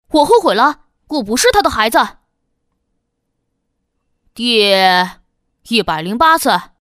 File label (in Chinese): 女 角色 叛逆男孩; 素人自然